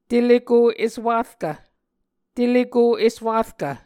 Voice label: "teleko eswarkv", Muscogee

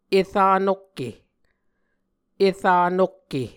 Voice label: "era nokke", Muscogee; Mvskoke Words with Repeated Consonants; A Dictionary of Creek/Muskogee; SIL International

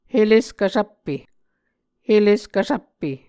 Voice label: "heleskvsvppe", Muscogee

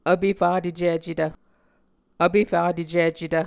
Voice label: "vpefatecicetv", Muscogee

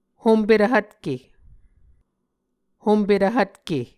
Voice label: "hompetv hvtke", Muscogee

